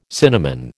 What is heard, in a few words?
"cinnamon", American